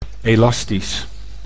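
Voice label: "elastisch", Dutch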